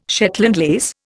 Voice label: "Shetland lace", Indian